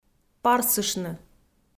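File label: "парсыштны", Komi, female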